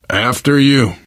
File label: lead on, survivor voice